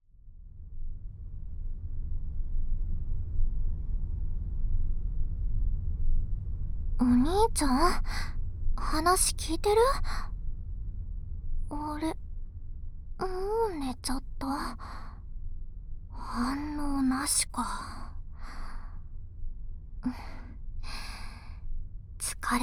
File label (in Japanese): お礼の耳舐め受け取って, ASMR